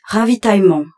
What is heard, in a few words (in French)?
French - Plenish; Lander